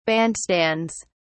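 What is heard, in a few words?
"bandstands", British English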